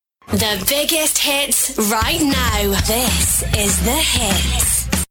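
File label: radio imaging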